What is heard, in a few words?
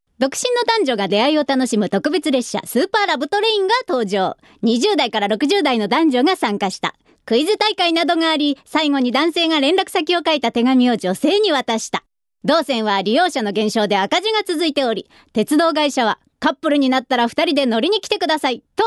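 narration, female voice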